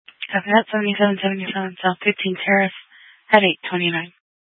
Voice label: Phx PD Dispatch, scanner

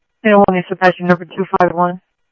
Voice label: Tempe Police, scanner; SimulcastF-Tempe

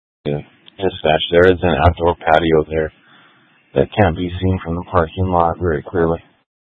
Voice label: Mutual Aid-Intersystem Interop B, scanner

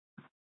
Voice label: Fire Dispatch

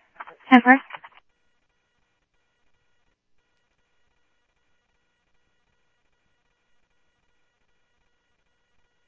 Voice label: Tempe Police, scanner; SimulcastF-Tempe

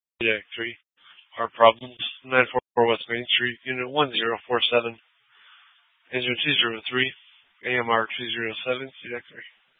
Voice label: FD Dpts VHF 156.240 Patch

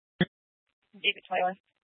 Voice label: Dispatch; Scottsdale PD; Nth Dispatch

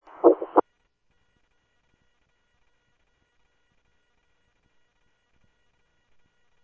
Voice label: Info Sheriff Common, scanner audio trunk; MCSO - Info Sheriff - Common; ThompsonPeakScot

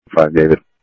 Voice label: Central Sheriff Central, scanner audio trunk

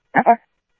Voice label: Scottsdale Police, scanner; SCTSDLE PD - PD2; ThompsonPeakHigh

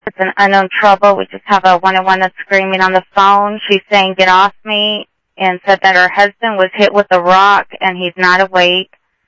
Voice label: Sheriff, scanner audio

